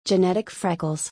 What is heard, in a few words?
"Genetic freckles", English